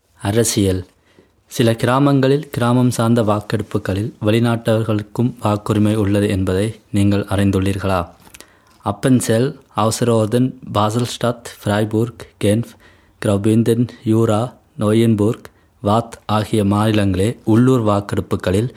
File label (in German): tamil politik